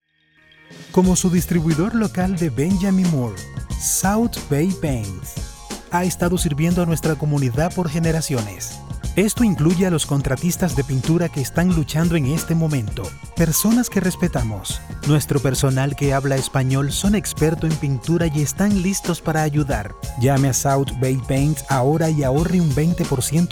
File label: Spanish Ad; South Bay Paints